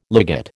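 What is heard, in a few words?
"ligate", American English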